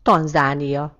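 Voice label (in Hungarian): "tanzania", Hungarian; gcompris; geography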